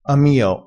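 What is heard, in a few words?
"amio", Italian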